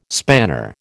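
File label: "spanner", American English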